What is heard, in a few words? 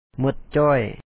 "ເຫມິດຈ້ອຍ", Lao; mo1t cO2Oy all gone